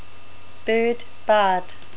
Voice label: "bird", NZ English